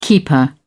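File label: "keeper", British English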